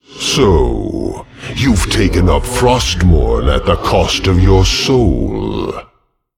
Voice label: Male Demon Player Equip Weapon; MalGanis